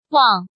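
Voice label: "Wàng", Chinese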